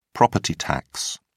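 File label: "property tax", British English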